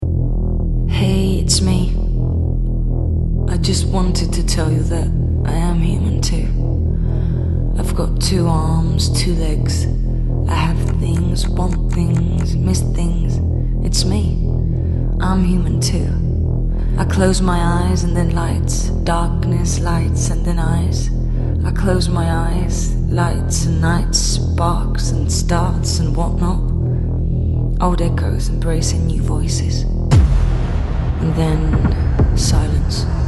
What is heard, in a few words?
commercial, English US, female